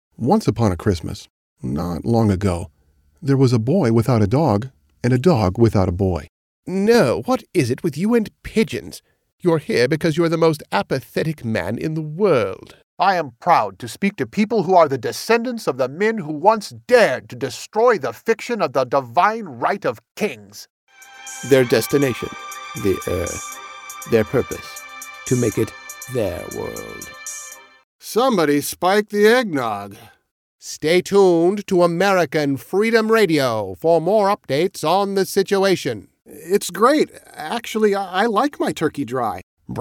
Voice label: Character